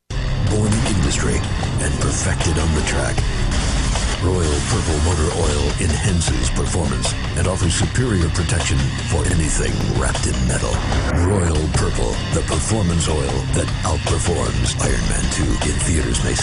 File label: Promo Trailer